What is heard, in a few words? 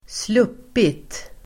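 "sluppit", Swedish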